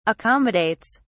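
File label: "accommodates", British English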